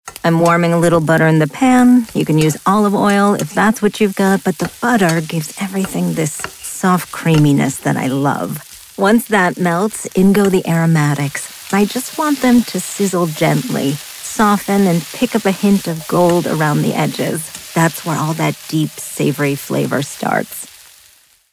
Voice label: corporate voiceover; Conversational, Instructional; NYT Cooking